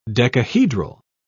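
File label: "decahedral", English, British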